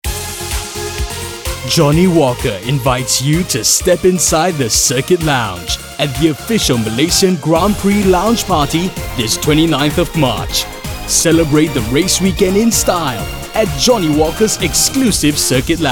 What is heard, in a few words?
Eng; JOHNNIEWALKER Step Inside